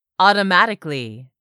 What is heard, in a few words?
"AUTOMATICALLY", English; 3000 words